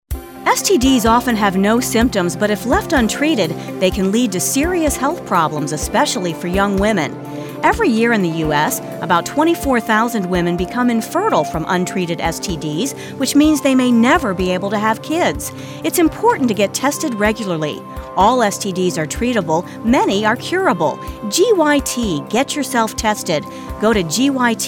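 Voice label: STD Awareness PSA Female Announcer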